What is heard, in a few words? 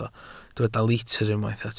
"litr", Welsh